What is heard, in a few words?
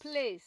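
"place", Nigerian Pidgin, female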